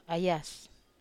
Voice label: "ayas", Wamesa